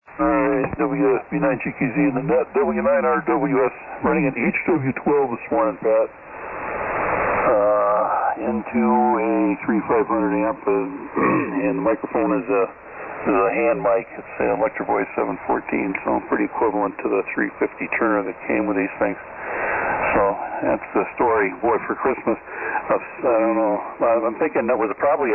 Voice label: Heathkit HW-12 EV-714 Mic & 3-500 Amp; Fort Atkinson,Wi.